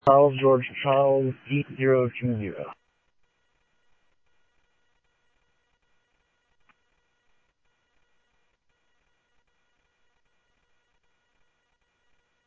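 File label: police radio dispatch, Scottsdale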